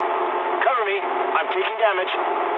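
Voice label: aircraft damaged mood low, speech English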